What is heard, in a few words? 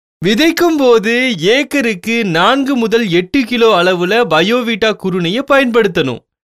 TAMIL MALE